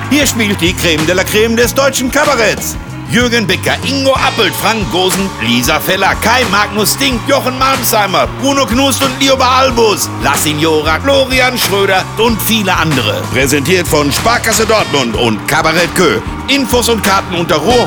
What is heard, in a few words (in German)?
Spiegelzelt Spot